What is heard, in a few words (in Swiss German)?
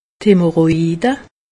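"hemorroide", Alsatian; medecine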